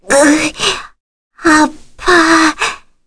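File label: voice Dead, Korean; heroes; Leo; kingsraid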